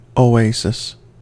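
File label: "oasis", US English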